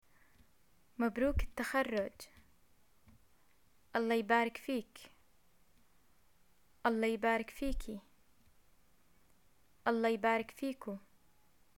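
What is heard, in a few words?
greet graduation, Jordanian arabic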